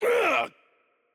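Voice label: Hurt Heavy, Japanese; VO; ja JP; bloom minigame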